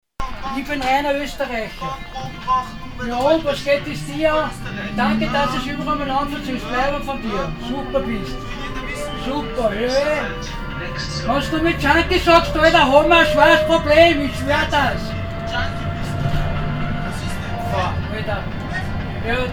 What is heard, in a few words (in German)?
Streit Drogenjunkies